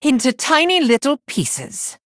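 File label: Male Blood Elf Shattering Blast; en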